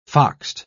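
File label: "foxed", English, British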